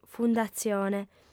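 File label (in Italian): "fundatzione", lingua sarda, female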